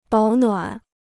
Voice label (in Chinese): "保暖", Chinese